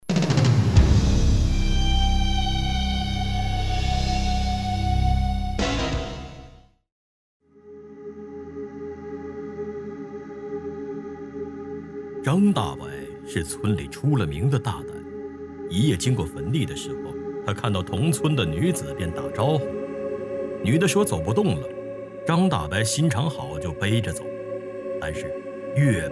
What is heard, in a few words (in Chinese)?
音效版; 背棺材